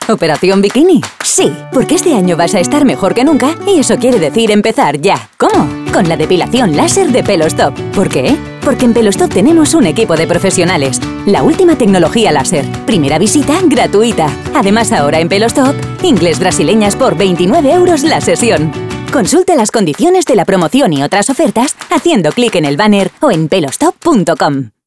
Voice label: Spanish Commercial; PELOSTOP